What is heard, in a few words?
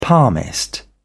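"palmist", British English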